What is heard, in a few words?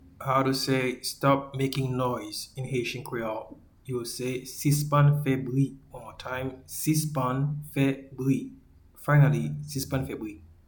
"Stop making noise" in Haitian Creole, "Sispann fè bri"; Stop talking